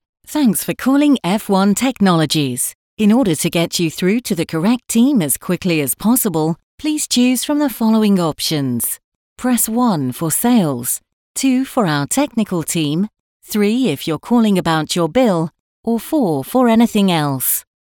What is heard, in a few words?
Welcome Message Neutral Voice